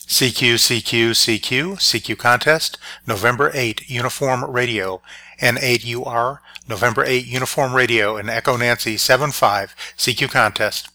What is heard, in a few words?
contest cq normal; hpsdr; station integration